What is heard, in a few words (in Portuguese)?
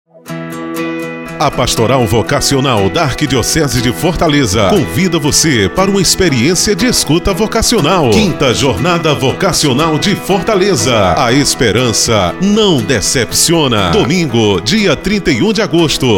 spot; V JORNADA VOCACIONAL 2014